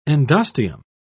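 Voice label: "endosteum", British English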